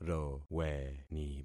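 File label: "roweni", concatenated syllables; Affixing experiment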